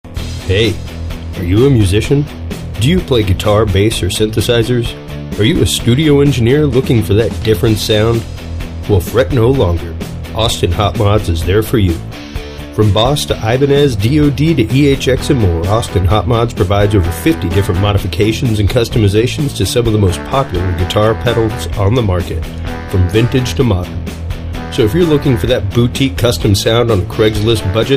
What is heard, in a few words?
sponsor spot; AUSTIN HOT MODS